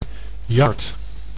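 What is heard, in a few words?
"yard", Dutch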